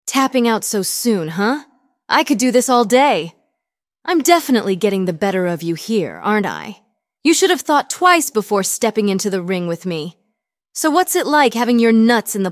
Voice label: synthesized audio